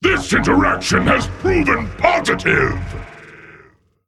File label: Demon Well Played